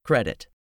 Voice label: "CREDIT", english; 3000 words

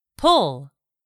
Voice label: "pull", English; 3000 words

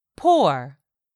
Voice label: "POOR", English; 3000 words

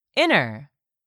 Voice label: "inner", English; 3000 words

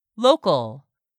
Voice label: "local", English; 3000 words

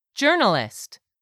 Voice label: "journalist", English word; 3000 words

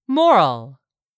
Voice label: "moral", English; 3000 words

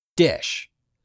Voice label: "dish", English; 3000 words

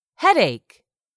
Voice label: "HEADACHE", english; 3000 words

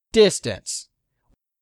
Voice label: "distance", English; 3000 words

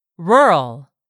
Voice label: "RURAL", english; 3000 words

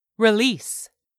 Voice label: "release", English; 3000 words

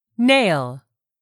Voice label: "nail", English; 3000 words

